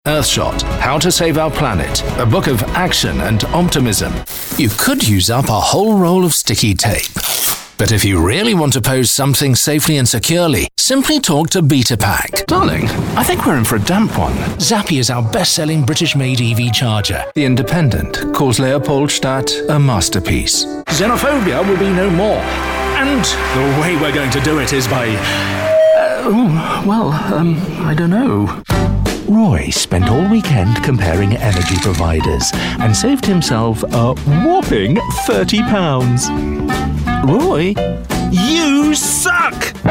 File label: commercials